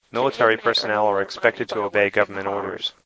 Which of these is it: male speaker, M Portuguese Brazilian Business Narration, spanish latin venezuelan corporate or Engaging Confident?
male speaker